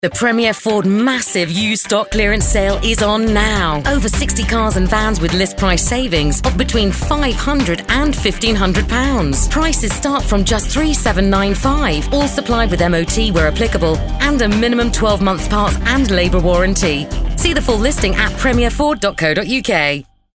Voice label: USED STOCK CLEARANCE SALE RADIO; PREMIER FORD; ISLE OF WIGHT RADIO